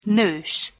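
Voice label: "nos", Hungarian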